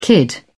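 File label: "kid", British English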